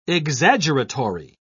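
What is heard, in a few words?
"exaggeratory", British English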